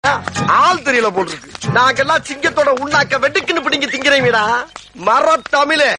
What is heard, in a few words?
tamil comedy dialogue; vadivelu